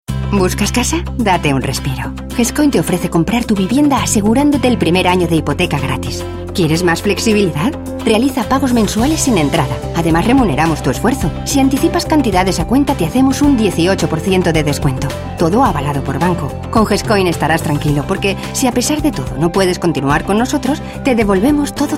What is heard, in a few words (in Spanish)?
comercial